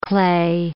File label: "clay", English